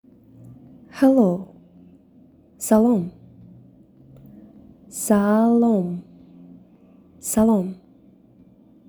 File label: "Hello", Tajik